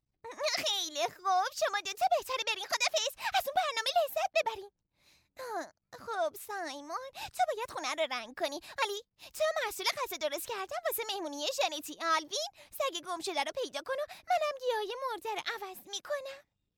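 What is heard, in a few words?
FA Characters, Persian; GoLocalise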